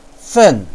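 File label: "fen4", Mandarin Chinese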